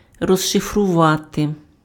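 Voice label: "розшифрувати", Ukrainian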